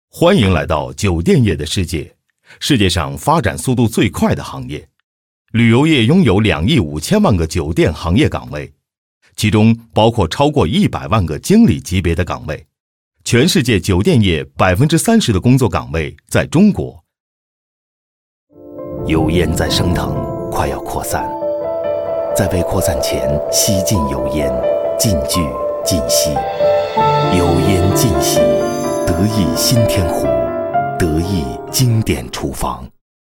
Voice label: voices CHINESE male adult MANDARIN; KER-SOUND

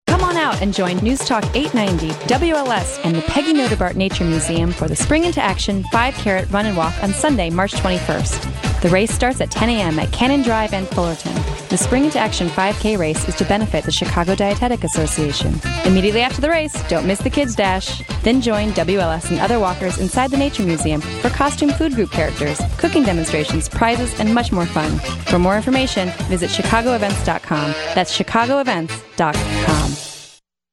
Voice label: voices ENGLISH female adult USA; KER-SOUND

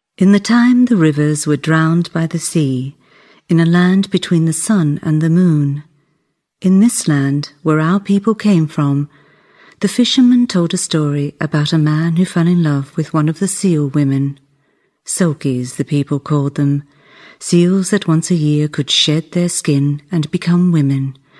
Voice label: voices ENGLISH female adult AUSTRALIA; KER-SOUND

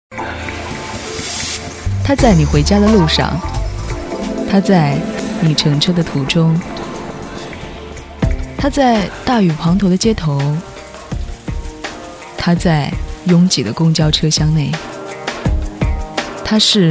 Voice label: MANDARIN FEMALE; zongqingyinyue

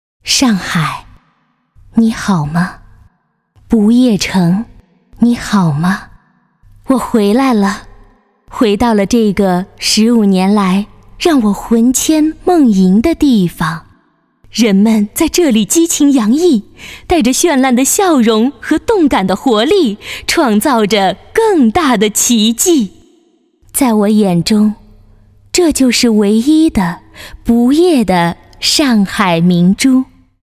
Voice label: VOICES ENGLISH Male Adult; KERSOUND

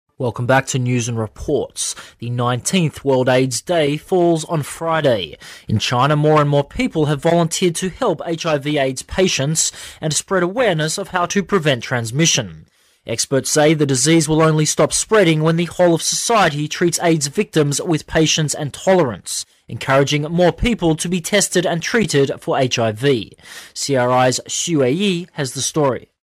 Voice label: voices ENGLISH male adult AUSTRALIA; KER-SOUND

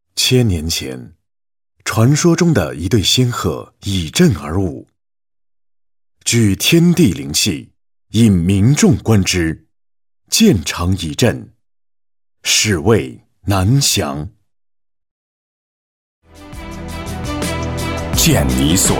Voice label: voices CHINESE male adult MANDARIN; KER-SOUND

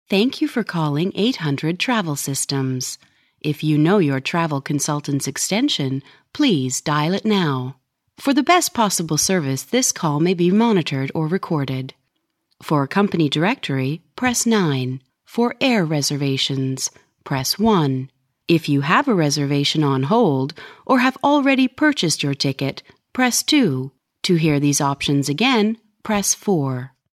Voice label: ENGLISH - Female - Adult, Canada; Ker Sound Studio Shanghai China

